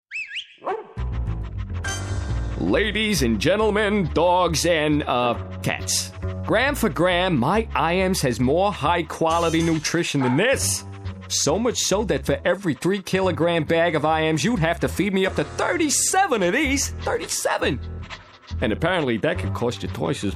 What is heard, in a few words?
us accent; iams